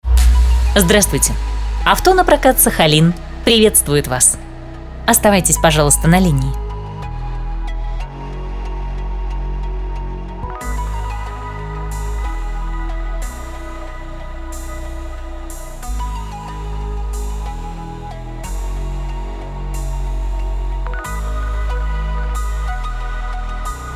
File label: IVR; Auto na prokat